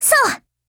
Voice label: AGREE, JP

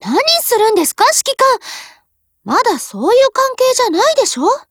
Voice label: DIALOGUE, JP; UMP9

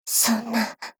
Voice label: LOWMOOD JP

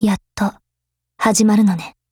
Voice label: GO ATTACK, JP

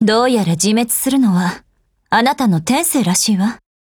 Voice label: DIALOGUE JP

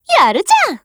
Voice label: APPRECIATE JP; UMP9

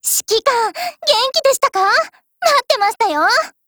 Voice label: HELLO, JP

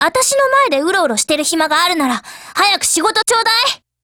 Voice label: DIALOGUE JP; KLIN

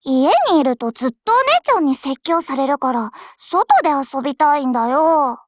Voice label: DIALOGUE JP; 97type

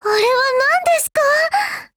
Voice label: PHRASE JP; Type88

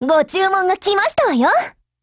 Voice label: JP; 88typeMod

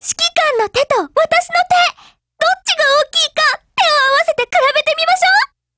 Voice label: DIALOGUE, JP

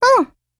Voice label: AGREE JP

